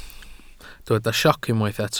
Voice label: "sioc", Welsh